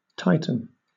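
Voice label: "tighten", English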